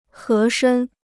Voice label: "合身", Chinese

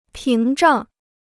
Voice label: "屏障", Chinese

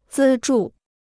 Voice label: "资助", Chinese

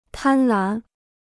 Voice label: "贪婪", Chinese

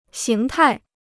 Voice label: "形态", Chinese

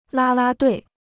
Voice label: "啦啦队", Chinese

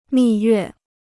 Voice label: "蜜月", Chinese

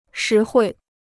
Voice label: "实惠", Chinese

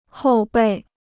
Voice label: "后备", Chinese

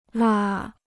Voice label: "蜡", Chinese

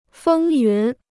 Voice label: "风云", Chinese

